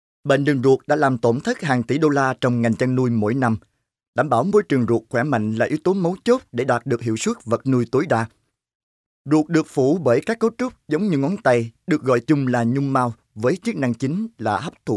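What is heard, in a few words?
Vietnamese Vietnam male narrative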